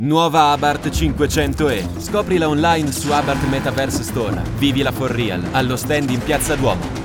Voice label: Italian; ABARTH Metaverse

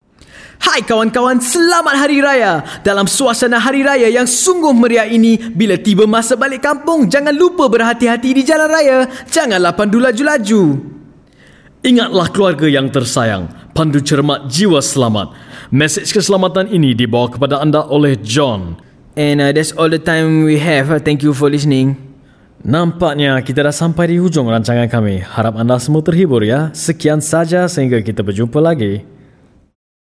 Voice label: Malay Clean

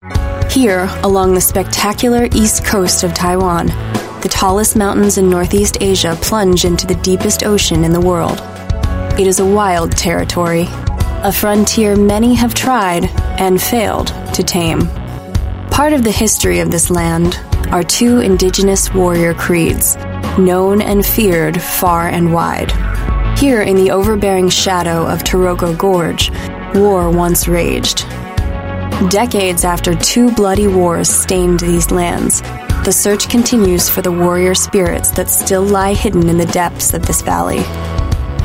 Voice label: US English Documentary, English US Female

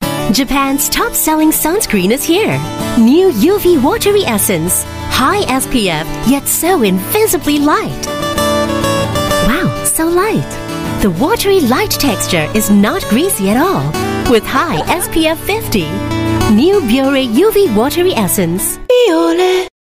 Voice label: Asian English Commercial; Biore UV Watery Essence

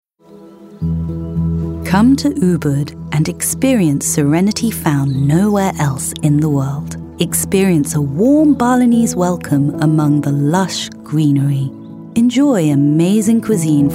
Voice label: UK English; Ubud

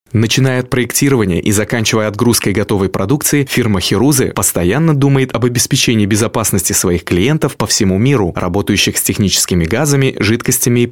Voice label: Corporate Russian, Male

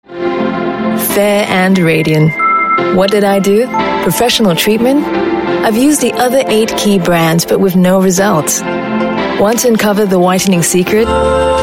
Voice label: Asian English; Qatar Neutogena

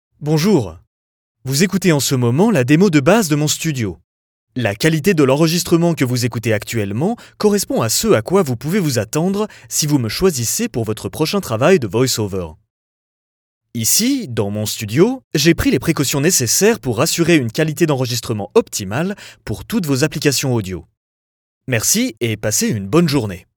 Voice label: French Dry Studio

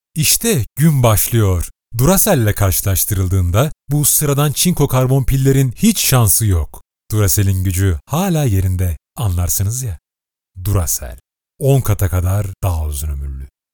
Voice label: Turkish Male; Duracell

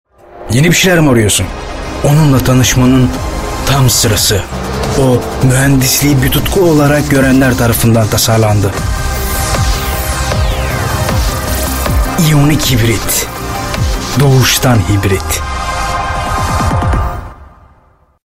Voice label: Turkish, Male

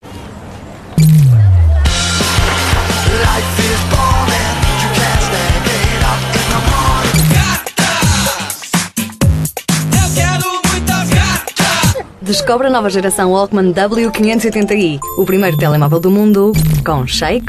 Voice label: European Portuguese F; Sony Ericsson

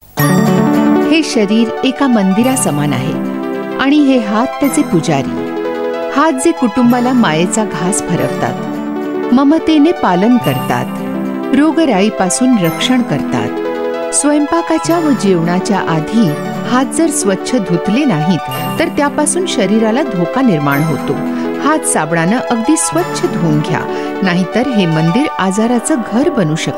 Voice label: Marathi, Female; India

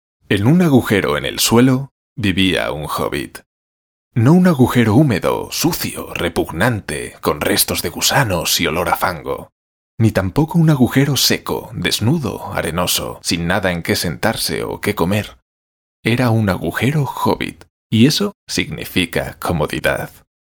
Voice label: Euro Spanish Male Narration; Spanish European Male

